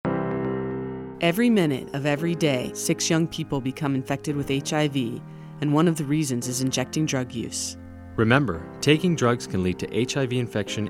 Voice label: spot usa music